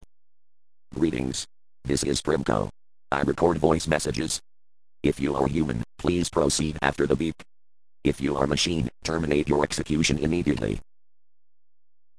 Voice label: robomessage; san francisco; answering machine message